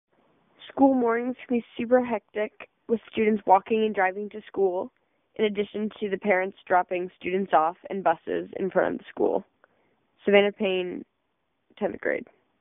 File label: Audio Message